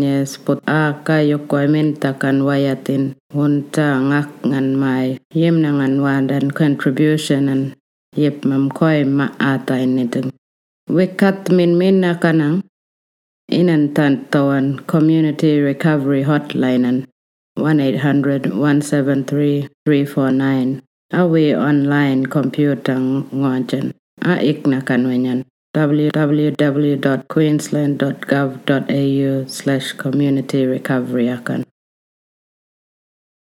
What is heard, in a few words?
wik mungkan income tested